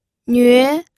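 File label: "nüe", second tone, pinyin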